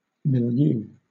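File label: "milieu", British English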